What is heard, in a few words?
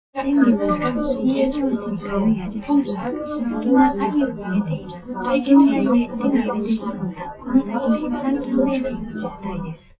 female live rec 5cm sim; SASSEC07